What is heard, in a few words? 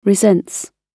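"resents", British English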